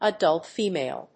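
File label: "adult female", English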